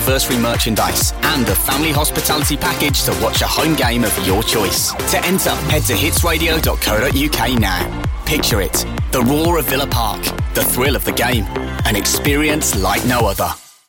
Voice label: local radio advert, Youthful Brummie Birmingham voice; aston villa